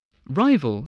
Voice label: "rival", English spelling